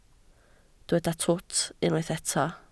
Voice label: "twt", Welsh